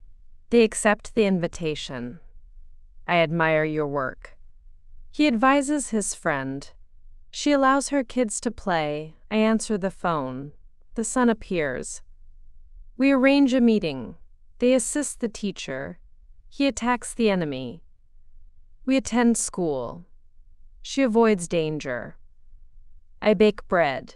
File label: AI speech